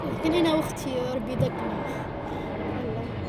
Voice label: woman in cafe